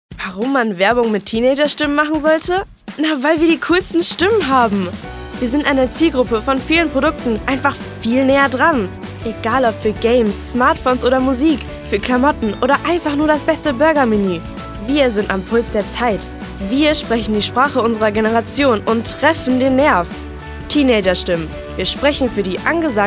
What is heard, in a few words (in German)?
Werbung